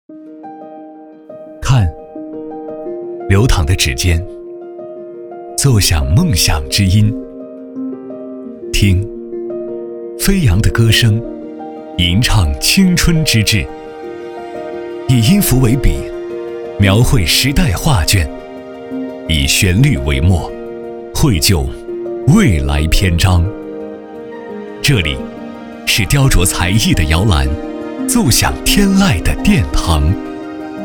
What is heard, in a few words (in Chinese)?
男349 宣传片; 积极品质